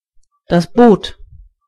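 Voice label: boat, German voices words; gcompris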